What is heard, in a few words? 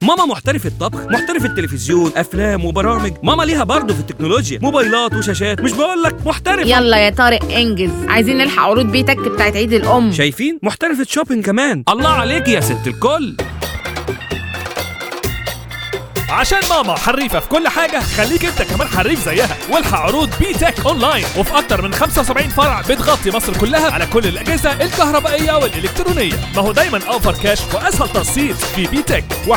fm; B-Tech; Noguom fm